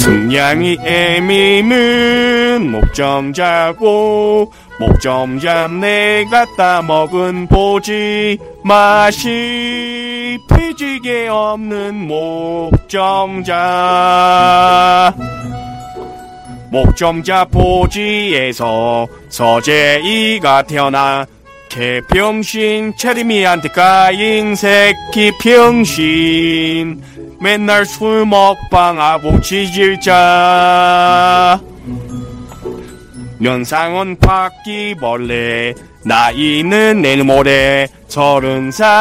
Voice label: scout cheers